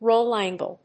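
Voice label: "ROLL ANGLE", English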